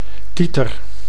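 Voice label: "titer", Dutch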